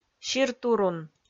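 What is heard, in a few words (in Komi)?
"сиртурун", Komi, female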